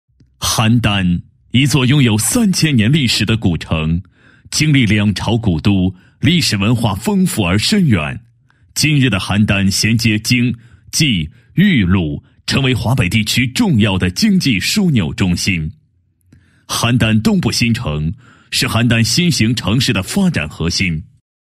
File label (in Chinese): 男40 专题 城市宣传介绍, 国语男声配音; 邯郸